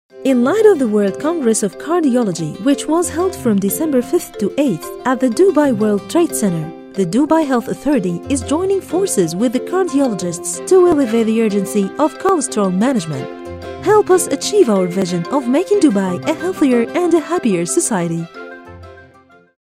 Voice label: انجليزي

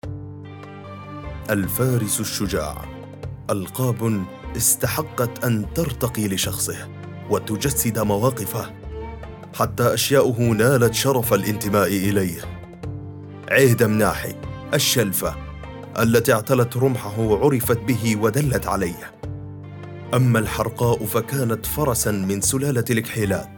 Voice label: تسجيل حلقات تلفزيونية وثائقية

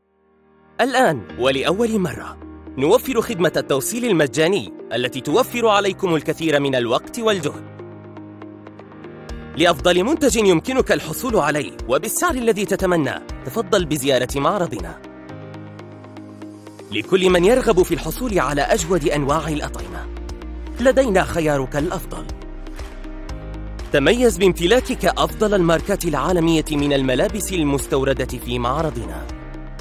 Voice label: إعلانات بأساليب منوعة

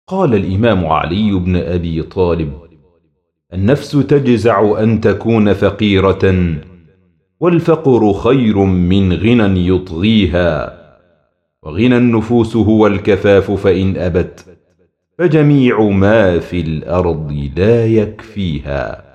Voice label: شعر; علي بن أبي طالب

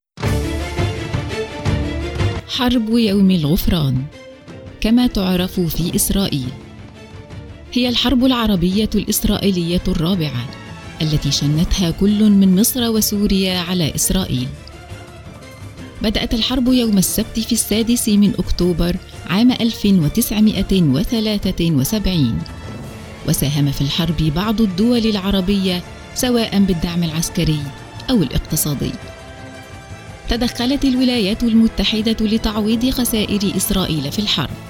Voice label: وثائقي

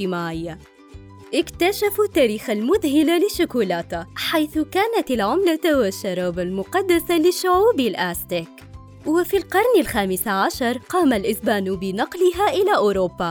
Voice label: وثائقي الشوكولاتة كامل

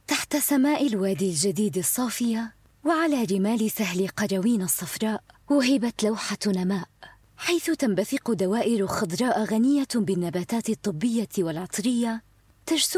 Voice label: تعليق صوتي; لصالح مزرعة نماء

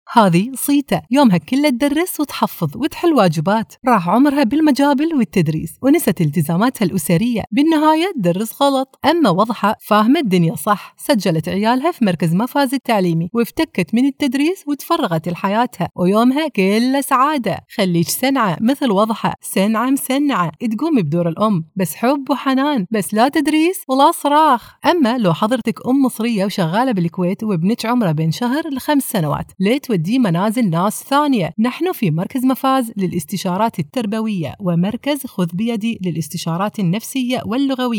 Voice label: كويتي رسمي; مركز مفاز

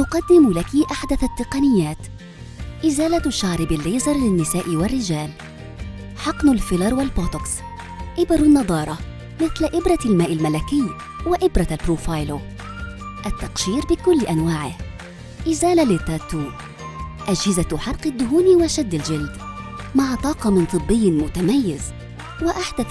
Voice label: عيادات, معلقة صوتية بالفصحى والعامية المصرية

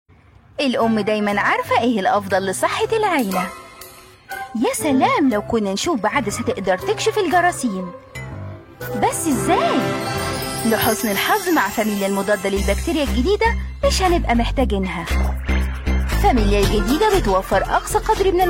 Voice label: اعلان; فاميليا

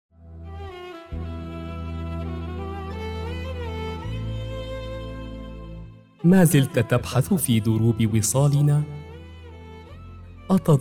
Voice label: شعر; متنفس

